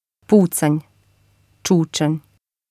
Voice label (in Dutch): pucanj, bosnisch; uitspraak; schot